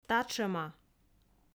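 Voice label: "татшӧма", Komi, female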